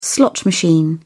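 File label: "slot machine", British English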